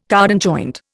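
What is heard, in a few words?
"cardan joint", Indian English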